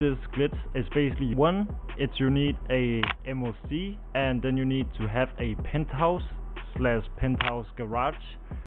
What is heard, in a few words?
glitch lisp